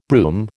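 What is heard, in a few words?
"brume", American English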